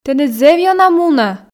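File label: "тэныд зэв ёна мунӧ", Komi, female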